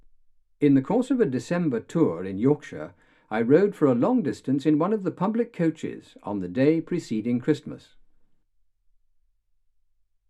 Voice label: male speech english